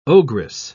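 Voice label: "ogress", British English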